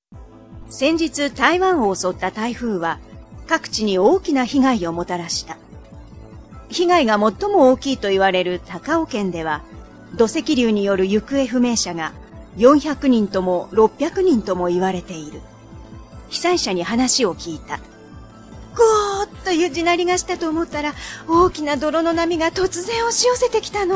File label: voice over